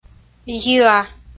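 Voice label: "йиа", Komi, female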